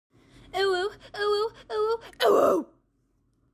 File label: uwu uwu uwuuu